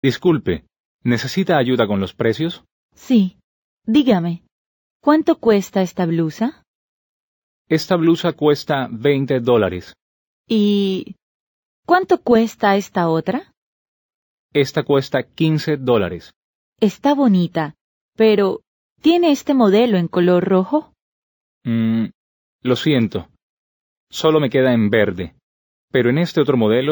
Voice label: Dialogue, Spanish